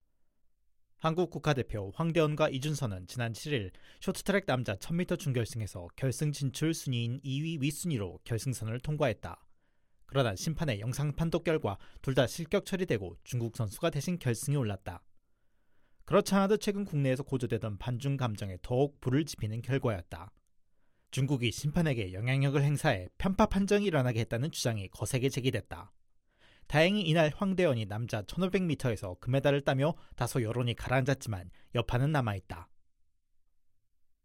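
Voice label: Korean, Narrative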